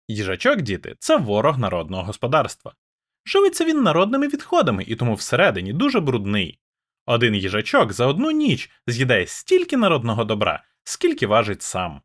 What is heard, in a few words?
quadcast2; hyperx quadcast 2